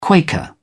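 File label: "quaker", English, British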